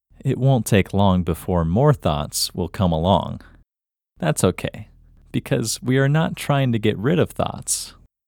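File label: Male, India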